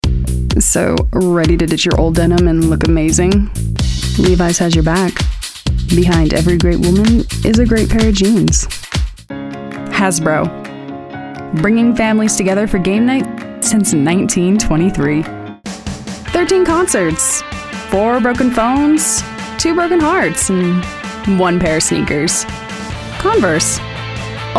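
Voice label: commercial, voice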